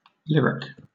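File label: "lyric", British English; pronunciations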